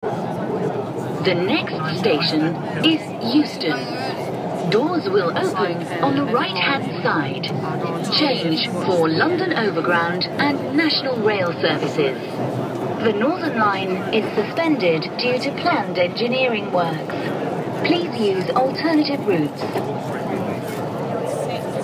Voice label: Line Victoria Next Euston, Underground